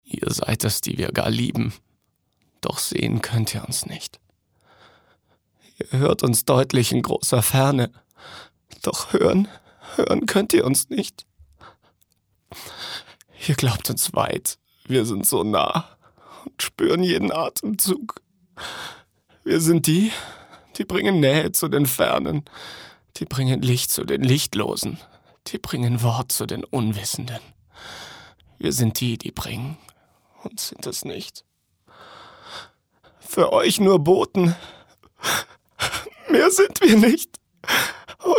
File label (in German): traurig; Sprecher